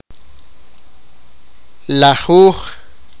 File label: sipakapense; ten